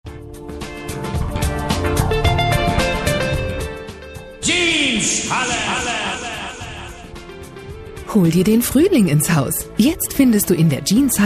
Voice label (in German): Werbespot; Yeans Halle